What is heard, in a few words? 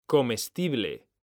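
"COMESTIBLE", Spanish; mekanta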